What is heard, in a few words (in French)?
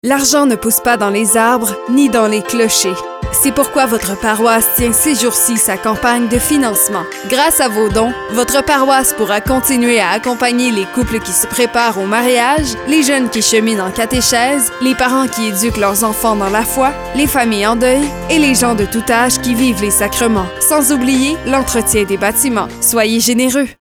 Pub campagne de financement des paroisses; CHLC 971